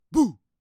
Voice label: Scary Boo